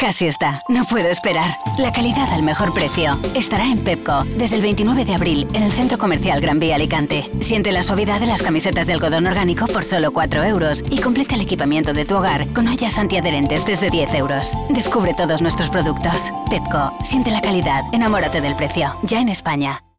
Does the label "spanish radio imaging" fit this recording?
Yes